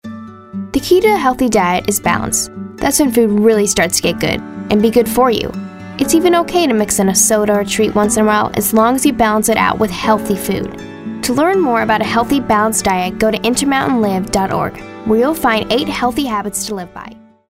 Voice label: informative

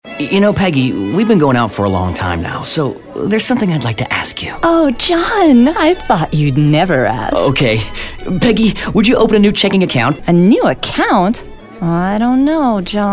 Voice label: Romantic

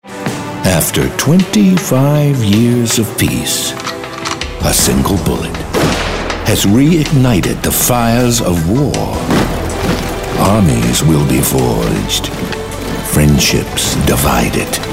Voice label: movie-trailer

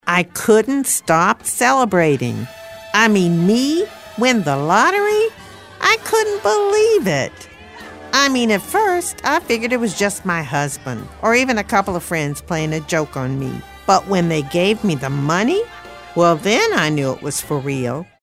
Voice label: real person midlife

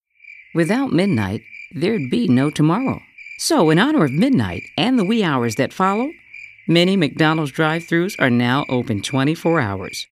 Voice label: soft spoken

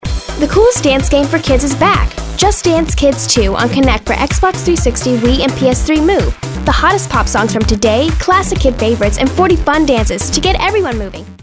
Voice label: upbeat

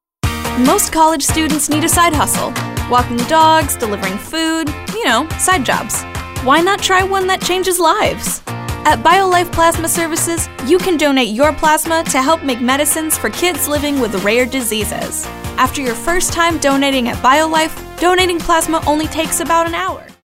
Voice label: upbeat

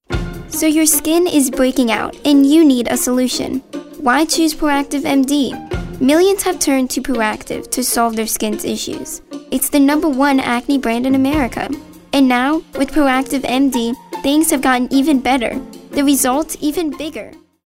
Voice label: conversational

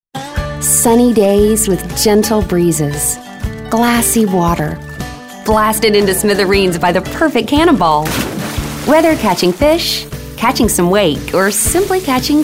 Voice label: folksy

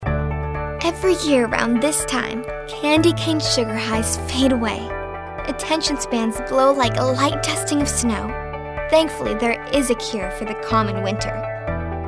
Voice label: kids; winterfest

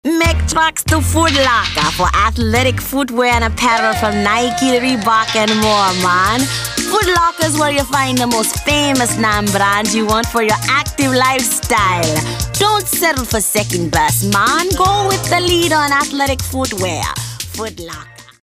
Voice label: jamaican

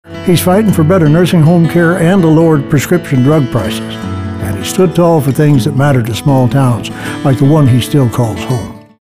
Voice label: caring

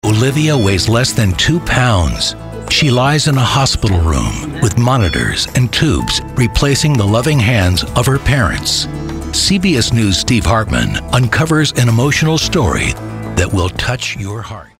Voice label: thoughtful newcasters

